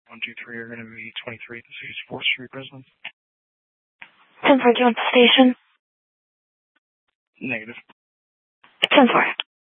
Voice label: Sheriff scanner; Maricopa County (P25) - Thompson Peak; Shrf Mnt (Parks)

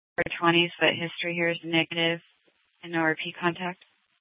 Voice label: PD Dispatch, scanner; TOPAZ Regional Wrlss Cooperative (TRWC) - Mesa Simulcast; Mesa PD Dptmnt; 1 Fiesta Dispatch

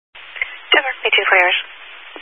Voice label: Highway Patrol, scanner; DPS EAST

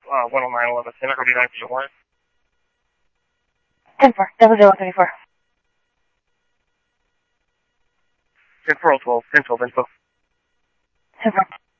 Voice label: Scottsdale Police, scanner audio

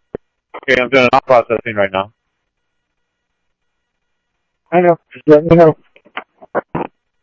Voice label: Police, scanner; SCTSDLE PD - Tec; H-Scottsdale; ScottsdalePolice

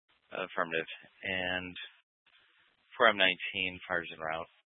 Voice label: Mesa PD Dispatch

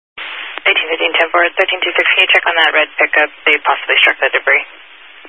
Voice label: Highway Patrol, Phoenix Metro East Area, scanner; DPS

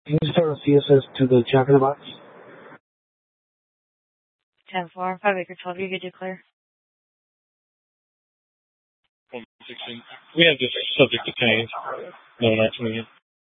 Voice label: Mesa PD Fiesta Dispatch

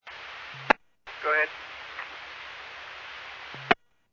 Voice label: Medevac, scanner; NativeAirAmb

